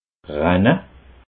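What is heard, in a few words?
"renne", Bas Rhin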